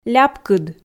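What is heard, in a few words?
"ляпкыд", Komi, female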